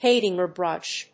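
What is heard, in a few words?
"Haidinger brush", English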